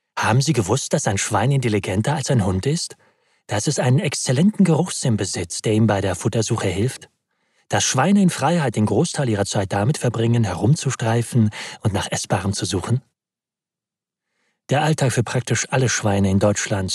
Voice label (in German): doku cold voice; peta